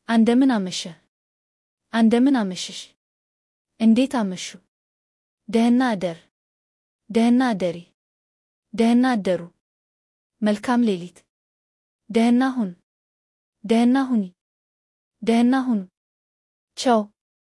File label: amharic farewells; greetings and salutations in amharic